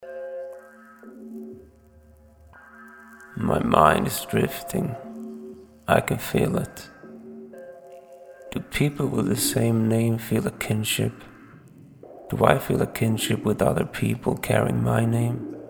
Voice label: Swedish quizmaster narration